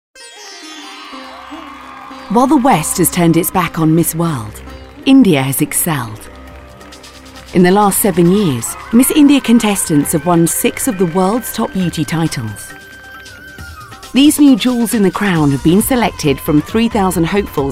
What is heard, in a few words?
female english documentary compilation; voices; devine voices